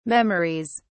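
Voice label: "memories", British English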